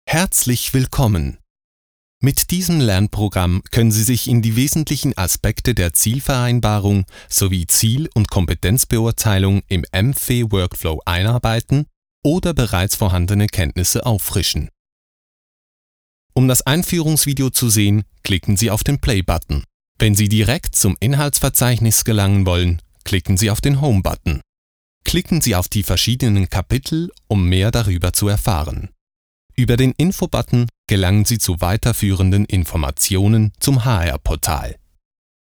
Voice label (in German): E Learning Tool Vertonung